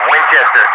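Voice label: "winchester", English speech, Wingman; DCS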